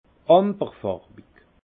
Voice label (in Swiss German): "amberfarwig", alsace; vin; ambre